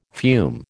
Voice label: "fume", American